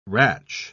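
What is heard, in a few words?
"ratch", British English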